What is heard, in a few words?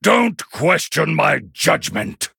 Male Human Picked; en